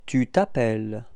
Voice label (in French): "appeller tu", frans